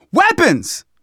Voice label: spot weapons, survivor voice; manager; Left 4 Dead 2; left4dead2 dlc2